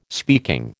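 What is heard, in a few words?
"speaking", American English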